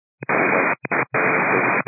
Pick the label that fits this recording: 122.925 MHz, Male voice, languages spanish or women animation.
122.925 MHz